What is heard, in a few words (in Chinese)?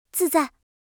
"自在", Mandarin